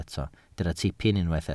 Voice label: "tipyn", Welsh